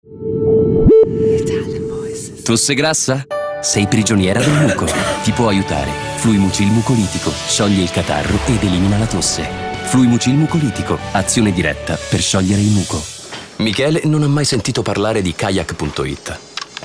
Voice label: ITALIAN VOICES Believable Reassuring, male voices

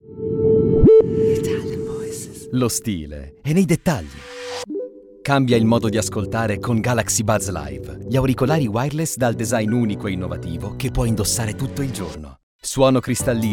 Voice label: commercial, Male Voices

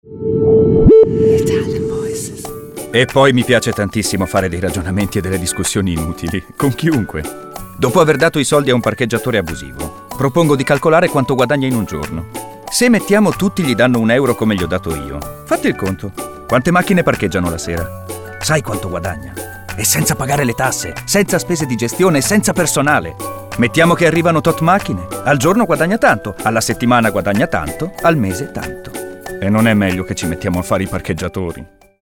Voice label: ITALIAN VOICES Lightsome Reel, male voices

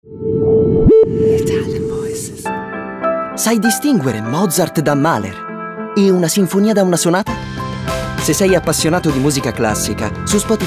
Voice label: ITALIAN VOICES Voiceover, male voices

